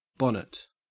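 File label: "bonnet", Australian English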